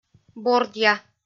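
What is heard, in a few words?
"бордъя", Komi-Zyrian, female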